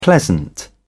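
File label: "pleasant", British English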